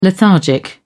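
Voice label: "lethargic", British English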